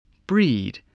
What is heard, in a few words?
"breed", spelling